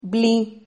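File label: "bli", Spanish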